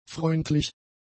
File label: "freundlich", German; adjectives